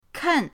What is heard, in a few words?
"ken4", pinyin